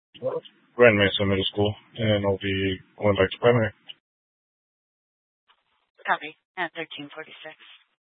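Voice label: Incident Tac 2, Scanner audio recording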